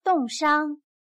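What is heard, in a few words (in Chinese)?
"冻伤" Mandarin Chinese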